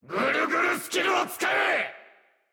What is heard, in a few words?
jp Voice Skill